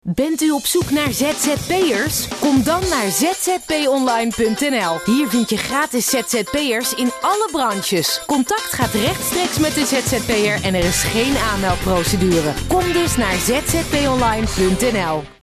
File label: Radiospot; ZZP Online